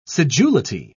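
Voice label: "sedulity", British English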